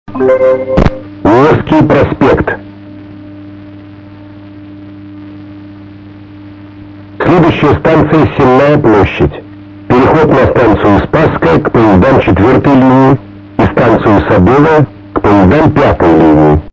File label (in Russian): Несколько объявлений со второй линии; Объявления в метро